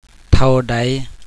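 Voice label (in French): "combien", French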